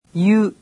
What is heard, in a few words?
japanese alphabet "yu"